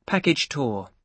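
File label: "package tour" English, British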